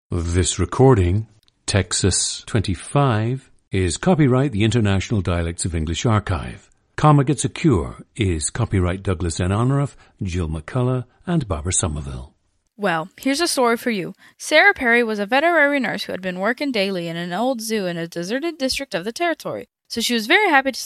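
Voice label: texas, International Dialects of English; Texas 25; a female-to-male 16-year-old from Rockport, Texas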